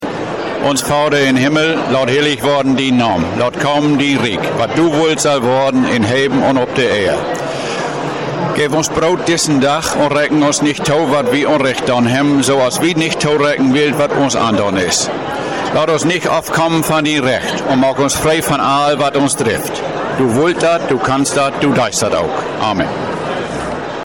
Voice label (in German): Vater unser Plattdeutsch